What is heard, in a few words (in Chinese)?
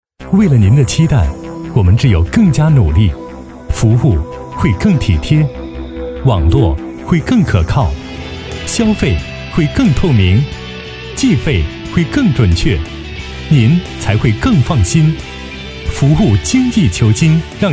男 专题 广告; 中国移动